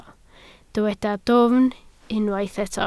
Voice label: "dwfn", Welsh